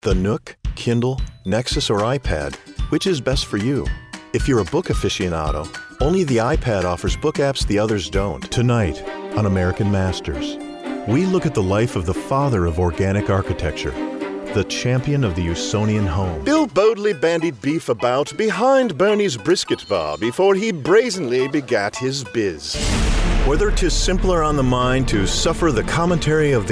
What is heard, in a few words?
Commercial